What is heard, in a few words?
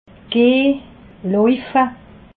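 "gehn", Haut Rhin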